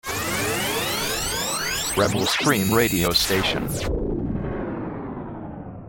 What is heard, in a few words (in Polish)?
radio